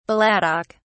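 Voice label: "balladic", British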